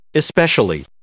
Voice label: "especially", English; adverb